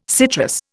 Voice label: "citrus", Indian